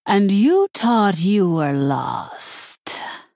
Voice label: Female Troll Play